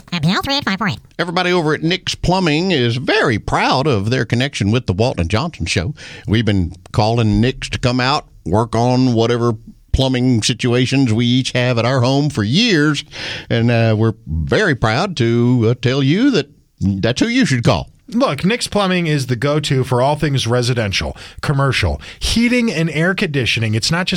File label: RADIO AD; nicks plumbing radio ads